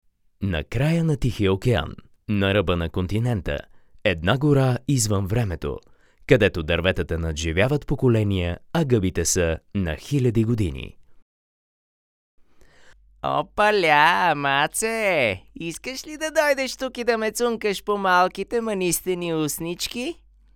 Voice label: bulgarian m character, voice; voice demos